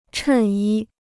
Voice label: "衬衣", Chinese